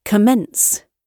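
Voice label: "commence", British English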